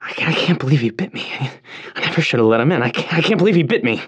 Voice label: radio initial broadcast; Left 4 Dead 2; left4dead2 dlc3; churchguy; npc

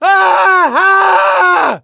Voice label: incapacitated injury, voice; gambler

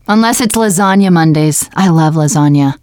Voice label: bulletin board, teen girl

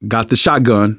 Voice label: take auto shotgun, survivor voice manager; Left 4 Dead 2; left4dead2 dlc3

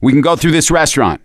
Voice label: misc directional, voice; gambler

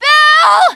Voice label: teengirl, voice; c6 dlc3 bill dies

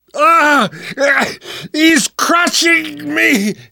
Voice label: tank pound, namvet, survivor voice; Left 4 Dead 2; left4dead2 dlc3

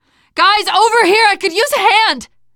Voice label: help, teengirl, voice

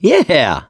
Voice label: "yes", survivor voice, mechanic

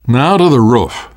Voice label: world hospital, namvet, survivor voice

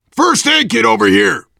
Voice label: spot first aid, voice, biker; Left 4 Dead 2; survivor

